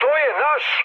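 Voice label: Serbian player friendly fire mood high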